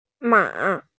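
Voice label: "ma3", Mandarin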